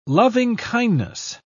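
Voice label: "loving-kindness", British English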